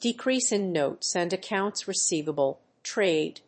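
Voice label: "decrease in notes and accounts receivable-trade", English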